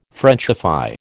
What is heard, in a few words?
"Frenchify", American